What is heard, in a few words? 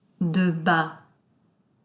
"de bas", French; wymowa w języku francuskim